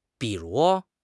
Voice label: "bi3 ru2", 汉语口语; 汉语口语新时代-初级下

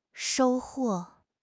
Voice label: "shou1 huo4", 汉语口语; 汉语口语新时代-初级下

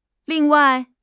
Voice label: "ling4 wai4", 汉语口语; 汉语口语新时代-初级下

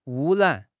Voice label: "wu1 ran3", 汉语口语; 汉语口语新时代-初级下

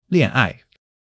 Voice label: "恋爱", 汉语; 汉语口语新时代-初级下

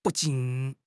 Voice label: "bu4 jin3", 汉语口语; 汉语口语新时代-初级下

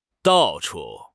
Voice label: "dao4 chu4", 汉语口语; 汉语口语新时代-初级下

